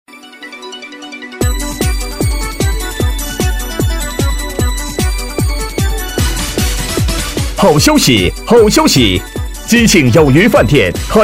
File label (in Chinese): 男 促销 饭店开业; 鸡庆有鱼饭店开业